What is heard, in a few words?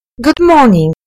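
"good morning", British English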